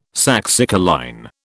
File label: "saxicoline", British